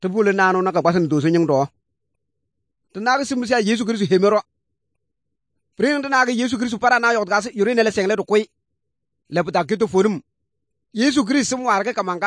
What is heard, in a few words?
good news, kabiye bible